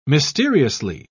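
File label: "mysteriously", British English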